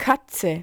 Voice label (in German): "katze", German